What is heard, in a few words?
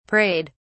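"prayed", English, British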